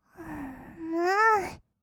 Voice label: "むー", kawaii voice; ASMR; 色々なワンショット

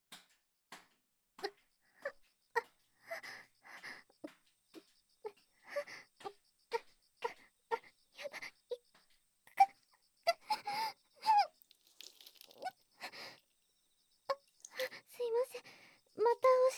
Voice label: 添い寝

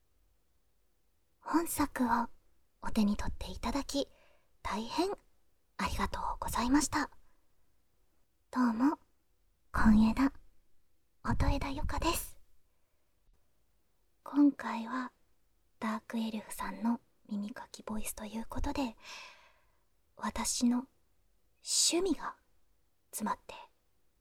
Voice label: ASMRアフタートーク; 不愛想ダークエルフの耳かき

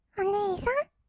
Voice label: お姉さん, kawaii voice; ASMR; 二人称

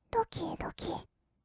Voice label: ドキドキ, kawaii voice; ASMR; 色々なワンショット; 1200 kawaii voice!!

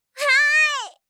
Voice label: わーい, 相づち・反応系【ポジティブ】, ASMR

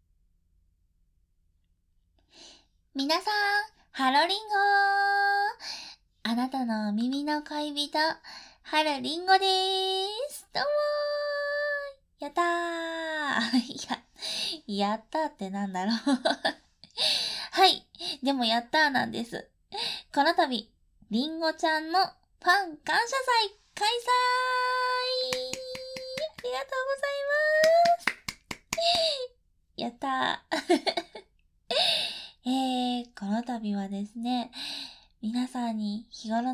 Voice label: オープニング バイノーラル, ASMR

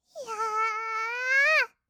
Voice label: "やーーーーー", kawaii voice; ASMR; 色々なワンショット

